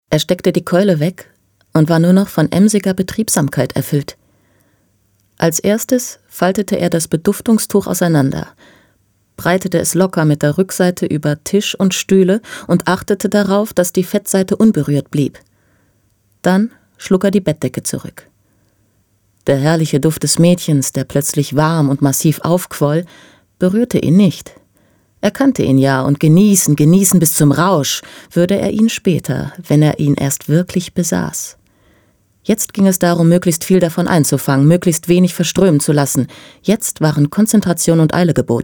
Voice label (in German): Hoerbuch